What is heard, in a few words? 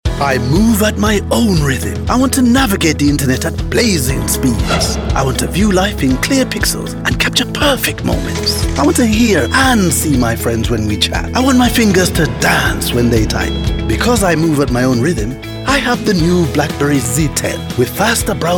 Advert; Blackberry